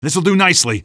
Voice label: this'll do nicely, male; Half-Life 2